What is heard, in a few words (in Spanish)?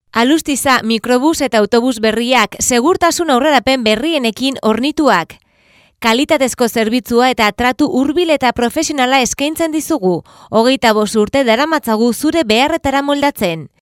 locutora euskera